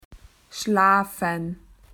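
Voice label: "to sleep", Australian English; PictureDictionary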